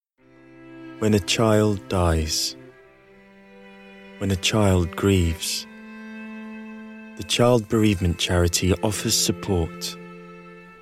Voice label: english international commercial

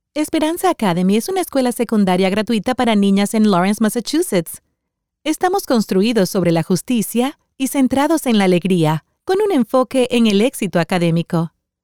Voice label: spanish latin american podcasts

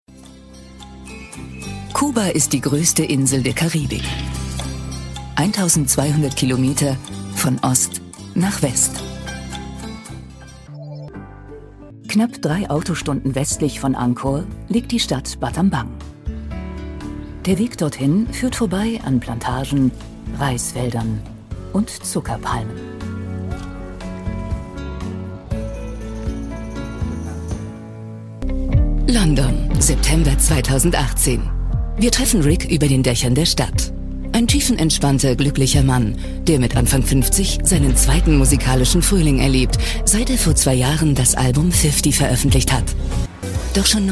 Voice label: German documentaries

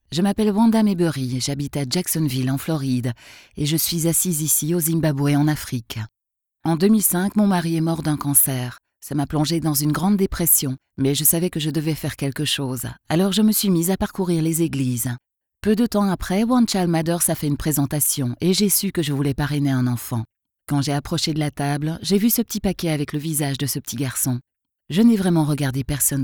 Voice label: french narration

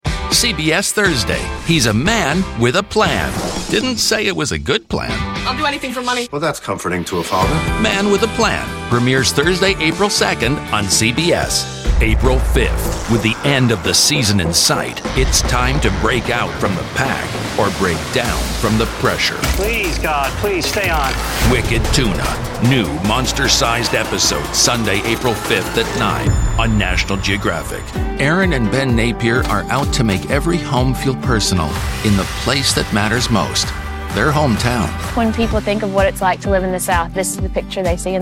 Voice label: English Canadian promos

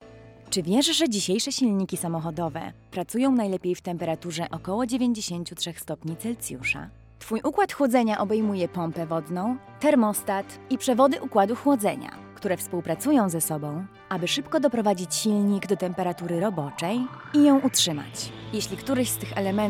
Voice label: polish e-learning

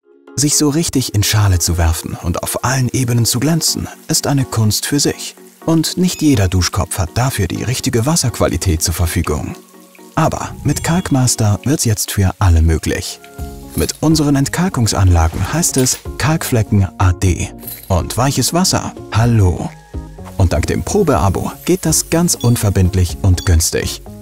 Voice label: german explainer videos; hauptberuflicher Sprecher für Deutsch

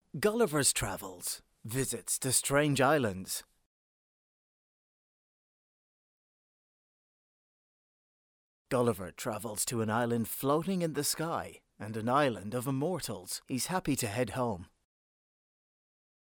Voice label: english irish documentaries

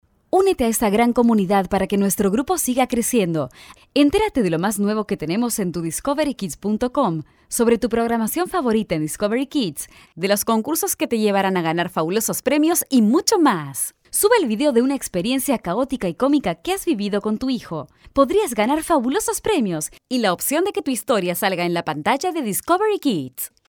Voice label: spanish latin american tv shows

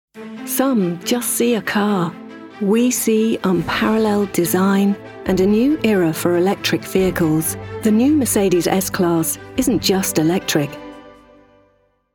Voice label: english british automotive

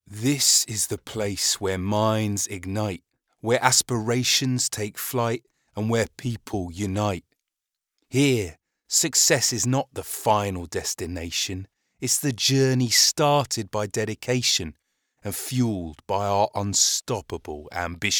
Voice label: english british radio imaging

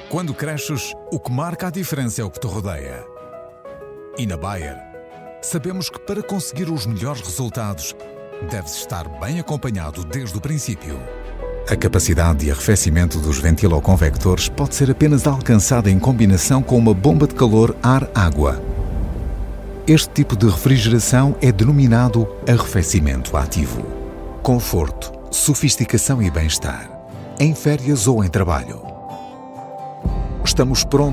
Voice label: portuguese corporate videos

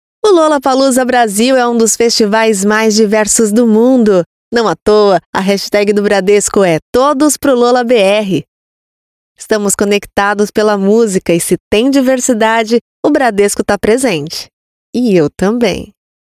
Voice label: portuguese brazilian tv shows

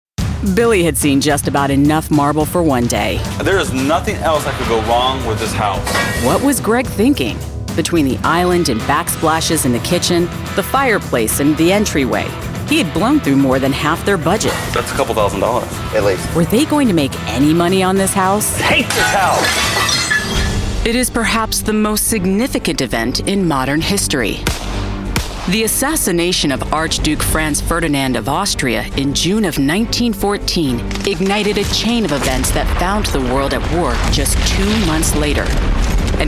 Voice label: english american tv shows, american voice actors

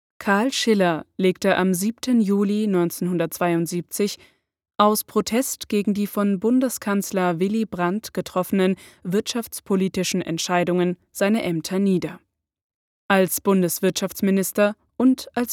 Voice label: german political ads

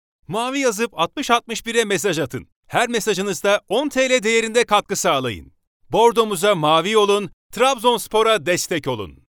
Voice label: turkish podcasts

